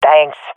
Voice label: thankful, swat fem; vo; Counter Strike Model Pack; CS:GO

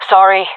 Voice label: sorry, fem; player vo; Counter Strike Model Pack; CS:GO

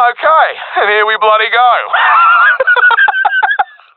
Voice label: round start, professional; vo; Counter Strike Model Pack; CS:GO

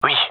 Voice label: affirmation, male; vo

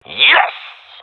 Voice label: sees team combo, professional; vo; Counter Strike Model Pack; CS:GO

